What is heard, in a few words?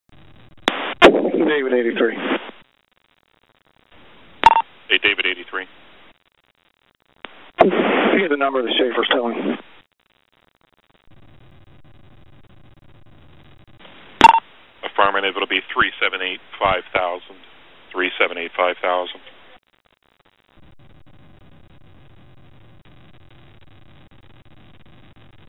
radio, Call Shafer Tow; Medical